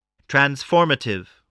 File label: "transformative", spelling